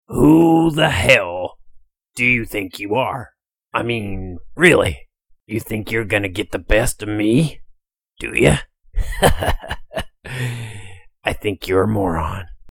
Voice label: Voice Demo Take Charge